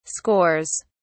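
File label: "scores", British English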